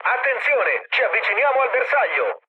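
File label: Italian near waypoint mood med, speech; War Thunder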